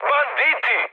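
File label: Serbian enemy detected mood high; War Thunder; speech srb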